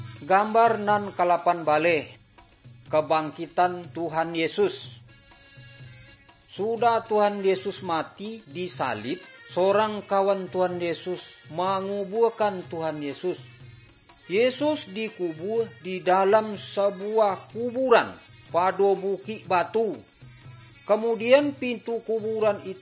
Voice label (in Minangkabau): good news minangkabau